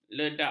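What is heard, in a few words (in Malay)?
"ledak", Malay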